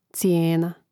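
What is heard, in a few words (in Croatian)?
"cijena", Hrvatski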